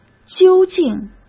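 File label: "究竟", Mandarin Chinese; chinese-flashcards; hsk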